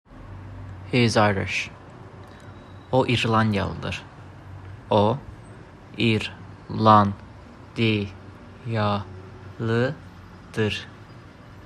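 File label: He is Irish. O İrlandiyalıdır, azerbaijani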